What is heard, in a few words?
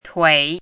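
"tui3" Mandarin Chinese, dipping tone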